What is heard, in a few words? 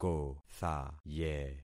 "kothaye", concat syllables; Affixing experiment